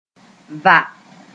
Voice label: "va", mos; to tap sth.; Mooré